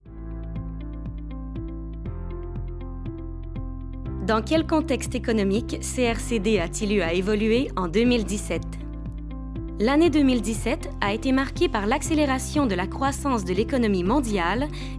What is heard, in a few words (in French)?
Narration; Desjardins